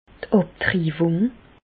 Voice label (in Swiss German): "abtriwung", Alsace, Bas Rhin; medecine; avortement